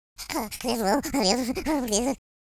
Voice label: pray, French Lover; speech